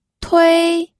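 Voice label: "tui1", Mandarin Chinese, pinyin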